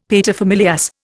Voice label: "paterfamilias", Indian; Dictionary